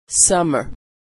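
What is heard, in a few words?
"summer", American English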